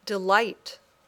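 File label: "delight", English word; The City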